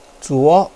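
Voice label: "zuo2", Chinese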